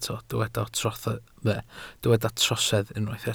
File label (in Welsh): "trosedd", Welsh